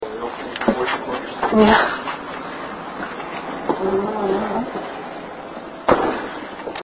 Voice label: furniture room womans voice

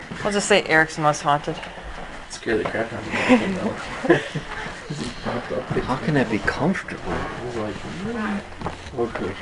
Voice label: master bedroom kid voice and maybe a male; amherst

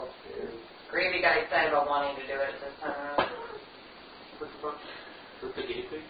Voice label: basement kid voice; amherst

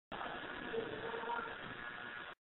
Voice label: hallway voice saying hes gone; bookroom; paranormal

